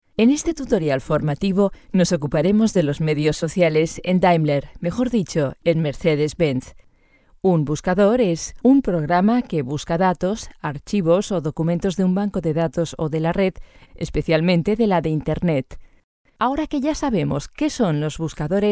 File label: sp female e-learning search engines